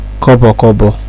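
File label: "kobo kobo", Nigerian Pidgin, male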